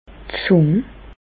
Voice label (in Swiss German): "d' Zùng", Bas Rhin; langue; medecine